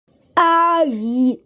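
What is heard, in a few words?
"aunt", Mandarin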